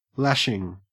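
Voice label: "lashing", Australian English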